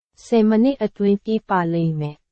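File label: Burmese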